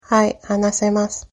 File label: yes a little, japanese